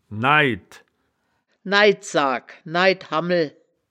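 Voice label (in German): "naid", wittlicher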